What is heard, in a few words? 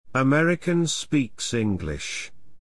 American speaks English